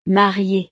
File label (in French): "mariee", frances; familia